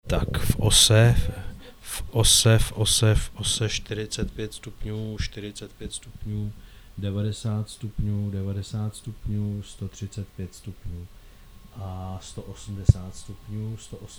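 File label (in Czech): vocal, Směrovost, e945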